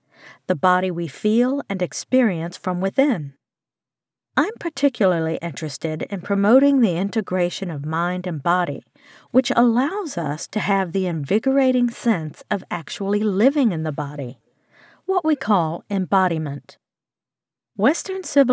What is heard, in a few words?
Non Fiction Well Being Female